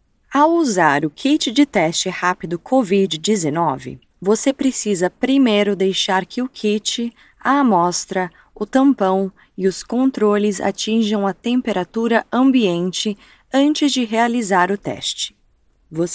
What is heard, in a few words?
Portuguese-Brazilian, Female, Home Studio Read, 20s-30s